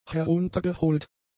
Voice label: "heruntergeholt", German; adjectives

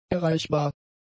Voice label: "erreichbar", German; adjectives

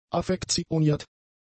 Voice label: "affektioniert", german; adjectives

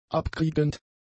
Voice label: "abkriegend", German; adjectives

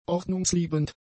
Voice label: "ordnungsliebend", German; adjectives

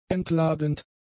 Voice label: "entladend", German; adjectives

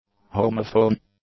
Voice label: "homophone", English; nouns

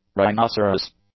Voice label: "rhinoceros", English; nouns

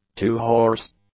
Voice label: "two-horse", English; adjectives

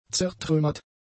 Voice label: "zertrümmert", German; adjectives

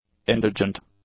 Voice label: "indigent", English; adjectives

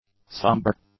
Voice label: "sombre", english; adjectives

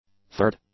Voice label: "third", English; adjectives

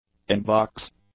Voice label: "in-box", English; nouns

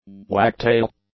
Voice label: "wagtail", English; nouns

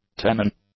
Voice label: "tenon", english; nouns

